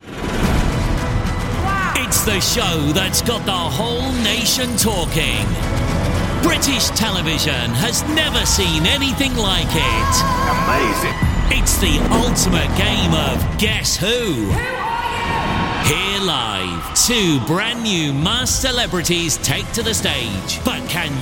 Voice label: male english voice of god compilation; Devine Voices

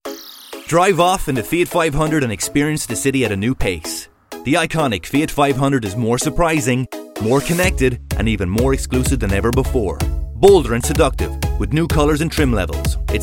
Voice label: male English Irish commercial; irish neutral; fiat 500

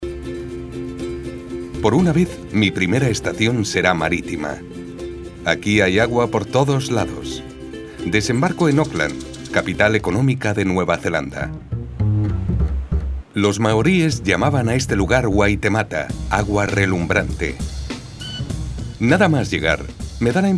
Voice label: male spanish castilian documentary

